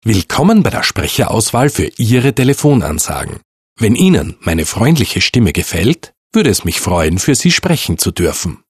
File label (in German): INTRO deu freundlich, professionelle Ansage; Telefonansagen; Deutsch